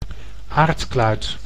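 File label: "aardkluit", Dutch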